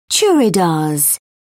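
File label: "churidars", British English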